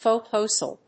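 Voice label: "photocell", English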